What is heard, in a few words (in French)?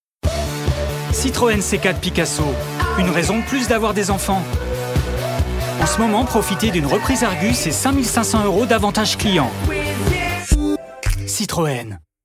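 Publicité - Voix médium - Ton jeune et rassurant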